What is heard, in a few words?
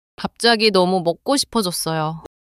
neutral